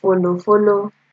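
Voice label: "follow follow", Nigerian Pidgin, female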